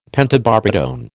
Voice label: "pentobarbitone", American